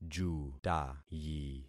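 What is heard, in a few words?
"jutayi", concatenated syllables; Affixing experiment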